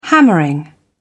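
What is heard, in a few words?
"hammering", British English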